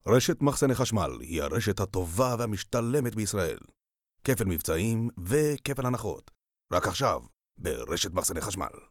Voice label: Hebrew Native